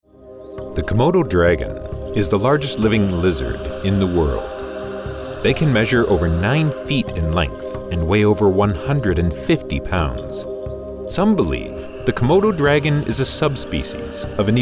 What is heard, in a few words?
Broadcast Narration, en-US